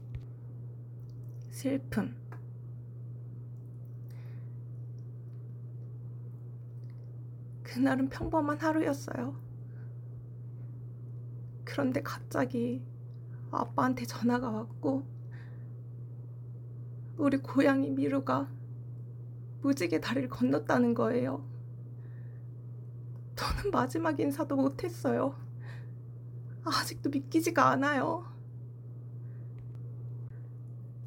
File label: Young Female Sad, korean voice emotion